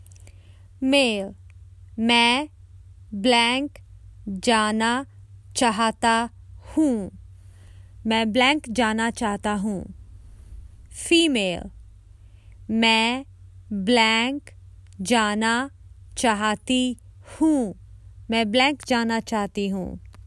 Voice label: Common Hindi Phrases for travel I would like to go to